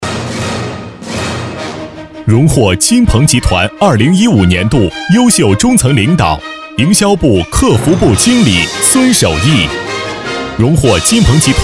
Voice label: 男 颁奖 大气力度颁奖

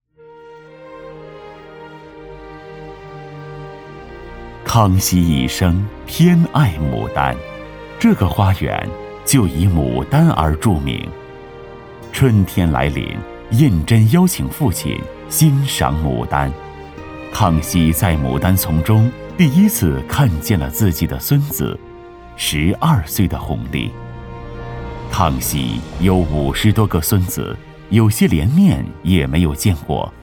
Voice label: 男, 纪录片; 圆明园

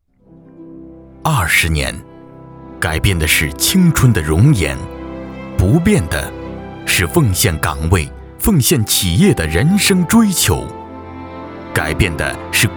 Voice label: 男 专题 人物解说

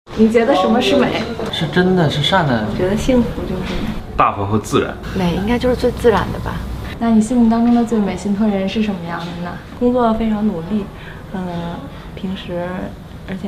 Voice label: 男, 旁白; 最美信托人

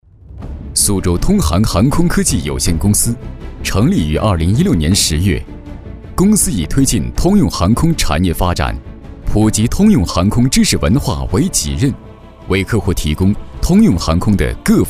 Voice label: 男, 专题, 带干音; 苏州通航

